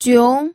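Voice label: "jiong2", Mandarin Chinese second tone; pinyin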